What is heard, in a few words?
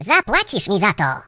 You'll regret that, voices, pl; hedgewars; Default pl